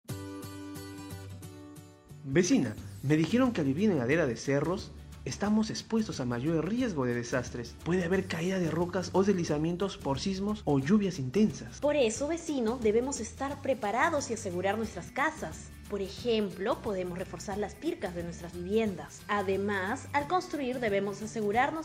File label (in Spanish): cuña radial